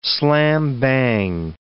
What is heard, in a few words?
"slam-bang", English